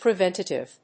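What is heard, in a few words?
"preventative", English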